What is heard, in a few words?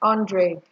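"hundred", Nigerian Pidgin, female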